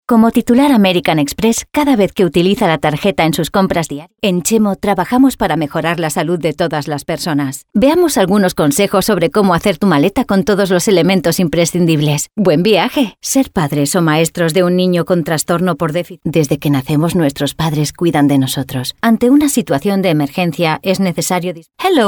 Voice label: spanish corporate videos